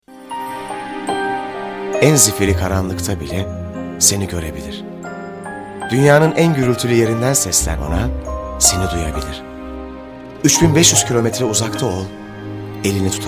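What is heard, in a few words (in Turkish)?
turkish male commercial; voice demos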